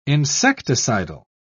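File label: "insecticidal", British English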